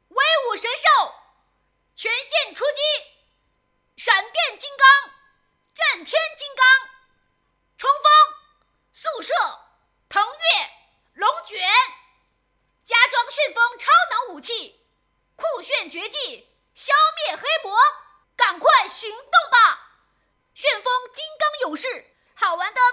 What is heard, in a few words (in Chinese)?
童声 玩具广告, 国语女声配音; 智迪玩具广告